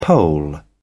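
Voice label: "poll", British English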